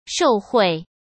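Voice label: "受贿" Mandarin Chinese; hsk; chinese-flashcards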